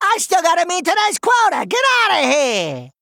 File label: Male Goblin; Whirleygig